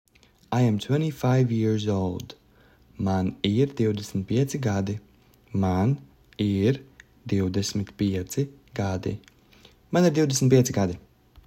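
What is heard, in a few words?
Im 25 years old, Latvian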